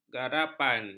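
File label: "garapan", Malay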